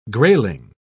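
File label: "grayling", British English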